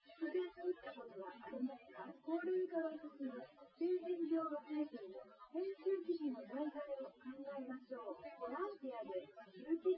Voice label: female synth conv 250ms 5cm sim; SiSEC08; SiSEC underdetermined